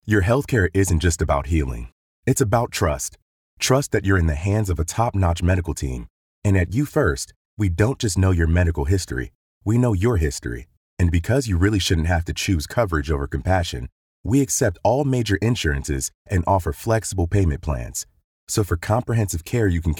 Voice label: Sincere